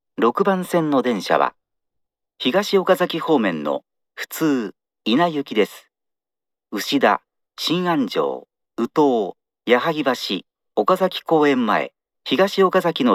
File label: arrival local, st announce; meitetsu; chiryust